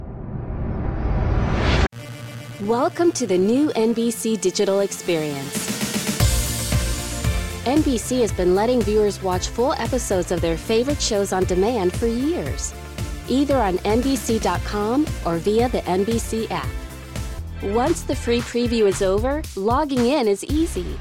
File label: Instructional